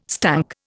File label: "stunk", Indian